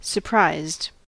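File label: "surprised", US English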